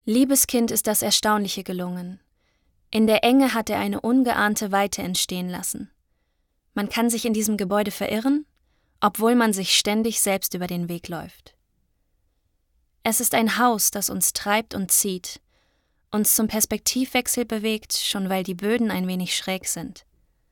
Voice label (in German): Doku